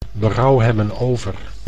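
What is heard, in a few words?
"berouw hebben over", Dutch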